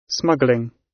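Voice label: "smuggling", British; Crime and punishment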